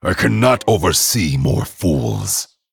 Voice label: Male Dragon ERROR FULL MINIONS